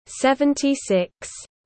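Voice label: "Seventy-six", English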